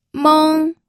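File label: "meng", Mandarin Chinese, first tone; pinyin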